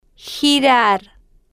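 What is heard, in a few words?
"girar", slow, spanish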